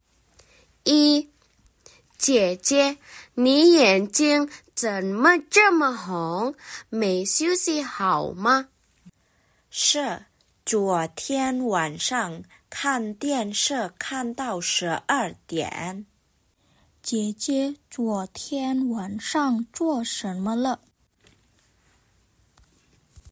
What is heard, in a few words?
Mandarin; mandarin placement test hsk2